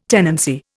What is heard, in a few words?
"tenancy", Indian